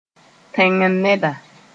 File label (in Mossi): "tẽng-n-neda", Moore